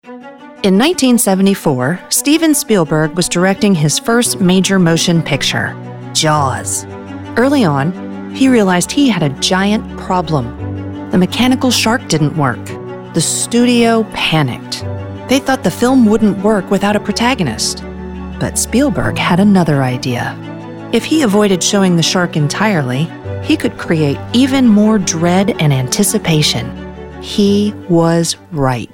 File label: narration